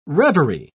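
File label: "revery", British English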